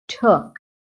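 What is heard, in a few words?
"took", English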